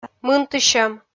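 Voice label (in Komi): "мынтысьӧм", Komi, female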